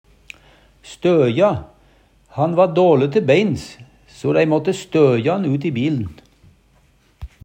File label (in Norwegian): støja, Numedalsmål